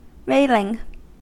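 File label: "railing" English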